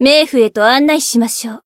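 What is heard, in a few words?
Voice encount Japanese; niike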